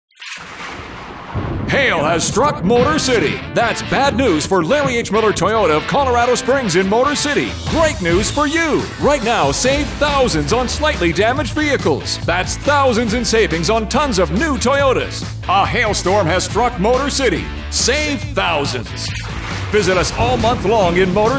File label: Upbeat, Car Dealers